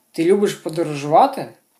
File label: dialogue, Ukrainian